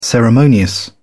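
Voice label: "ceremonious", British English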